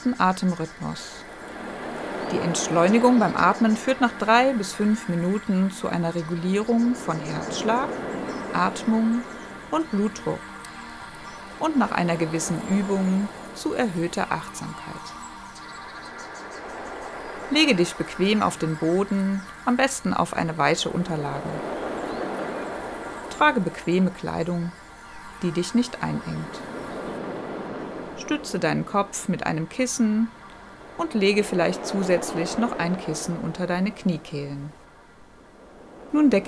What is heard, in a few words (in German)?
meditation im liegen mit meeresrauschen